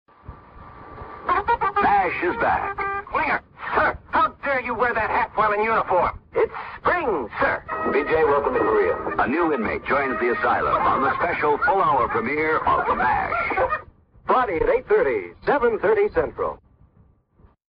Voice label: audio promo welcome korea